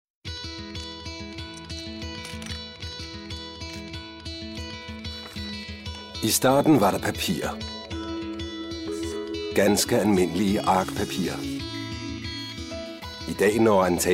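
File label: danish male commercial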